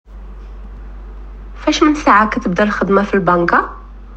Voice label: Morrocan